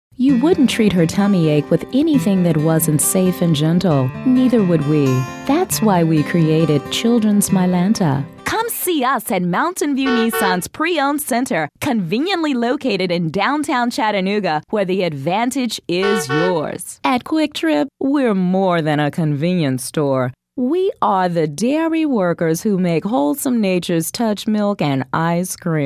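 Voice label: Female Commercial, voiceover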